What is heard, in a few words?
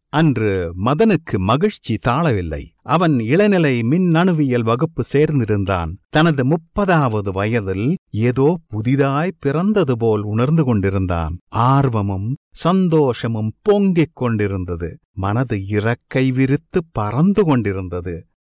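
Tamil Sri Lanka male upbeat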